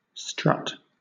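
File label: "strut", British English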